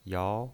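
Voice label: "yao" Mandarin Chinese pinyin voice, second tone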